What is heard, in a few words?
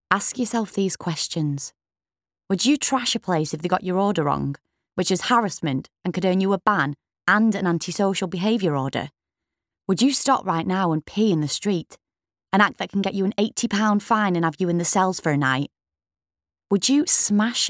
female english northern accent alcohol limits commercial; english northern accents